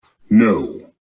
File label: quiet no; lord vader